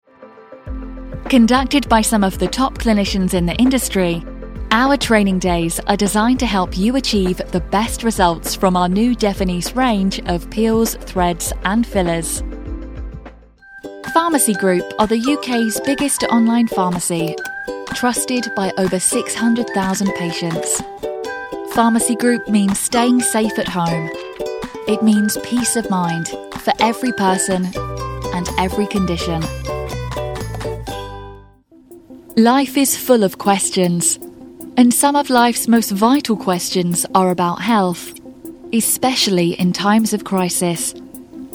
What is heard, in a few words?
Medical, british female voiceover